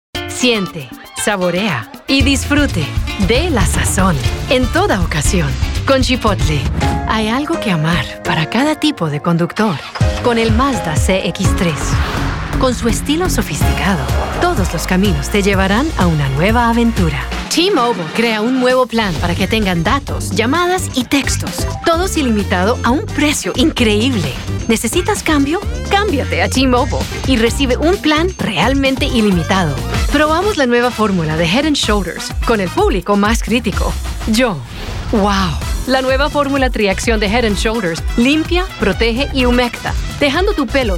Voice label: languages women